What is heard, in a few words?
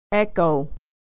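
"echo", American English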